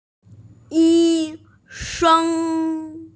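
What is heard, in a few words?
"yi1sheng1", Mandarin Chinese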